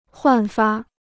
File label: "焕发", Chinese